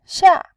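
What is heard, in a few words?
"xia", Mandarin Chinese, tone 4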